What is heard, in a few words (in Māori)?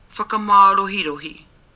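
"whakamarohirohi", Māori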